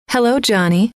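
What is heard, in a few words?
"hello", female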